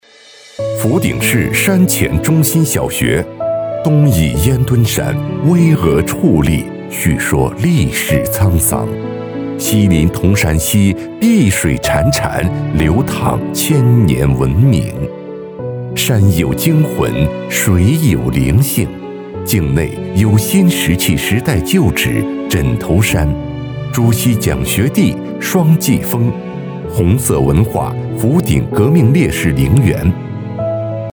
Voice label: 男 大气浑厚; 学校宣传片; 福鼎小学

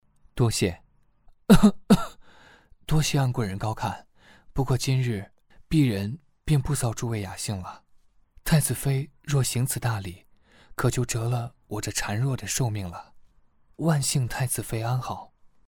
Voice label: 男 角色音 公子

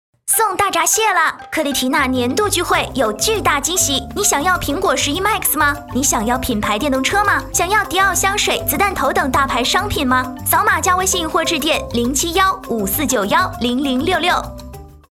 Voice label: 女 广告 活泼女

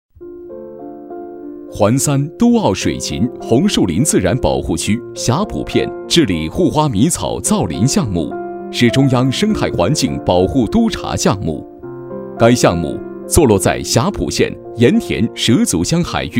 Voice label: 男 专题 项目简介

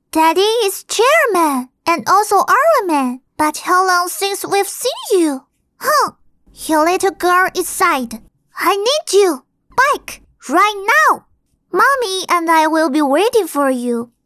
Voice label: 童声英文女

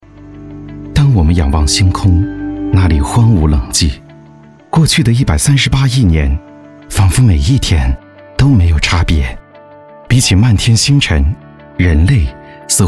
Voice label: C男 温情解说

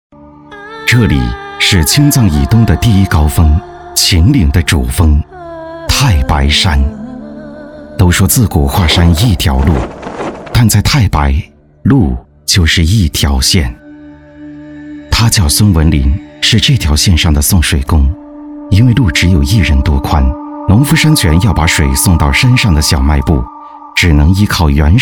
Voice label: 男 大气沉稳

